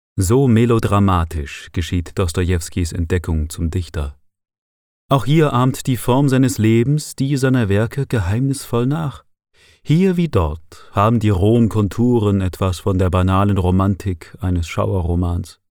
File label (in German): Sprechprobe Hoerbuch